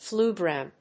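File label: "fluidram", English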